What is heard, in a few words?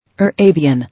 "Arabian", English